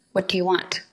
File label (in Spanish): Voice Acting es